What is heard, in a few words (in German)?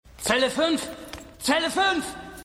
Polizist Waerter; Silent Witness